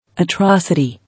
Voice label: "Atrocity", English